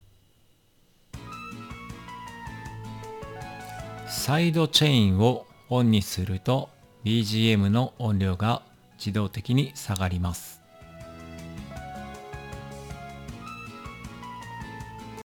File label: maono amc2 neo side chain; XLR接続マイク